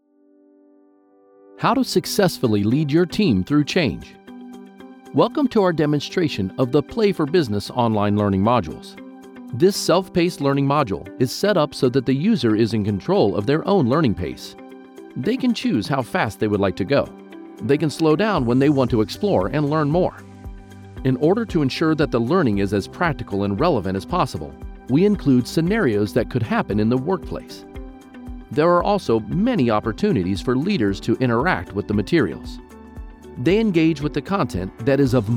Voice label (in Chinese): 在线课程宣传